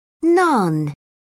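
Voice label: "naan", British English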